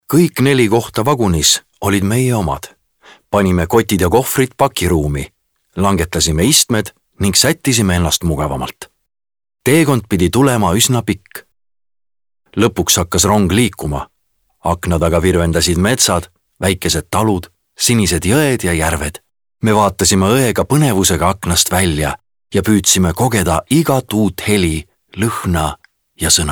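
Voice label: Estonian male example Casual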